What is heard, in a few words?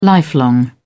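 "lifelong", British English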